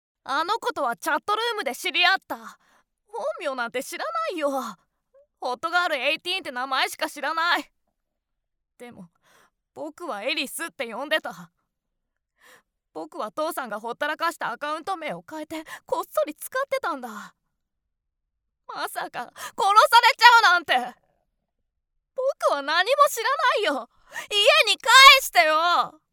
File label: boy; 少年; アニメ